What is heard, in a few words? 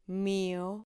"mio", Spanish syllable